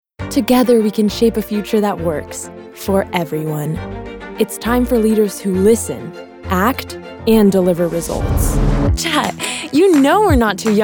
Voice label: Political, Eng